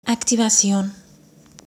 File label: "activacion", Spanish; how to learn spanish